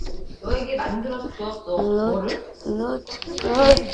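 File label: "lute", spoken word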